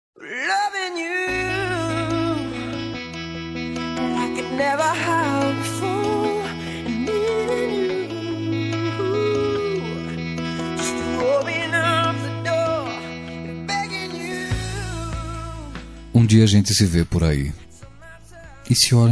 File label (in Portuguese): ROM SENSUAL MASC; Telemensagens